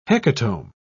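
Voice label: "hecatomb", British English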